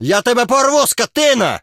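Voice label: finding, Ukrainian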